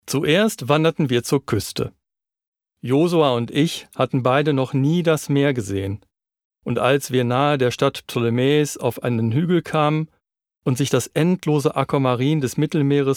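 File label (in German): Hörbuch Sprecher humorvoll erzaehlend, Stil: Humorvoll, erzählend, ironisch, lebendig, facettenreich; (Auszug)(Hörbuch); Christopher Moore - "Die Bibel nach Biff"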